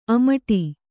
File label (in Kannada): "ಅಮಟಿ", Kannada